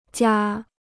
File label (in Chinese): "家", Chinese